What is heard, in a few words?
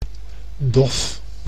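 "dof", Dutch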